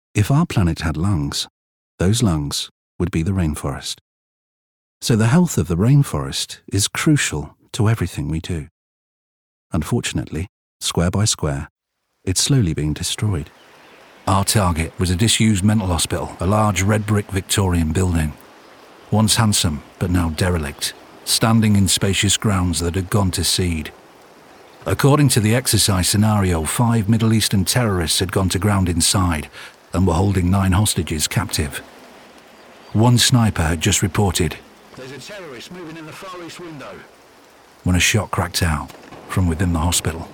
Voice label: Narration